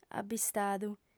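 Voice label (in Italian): "abistadu", sarda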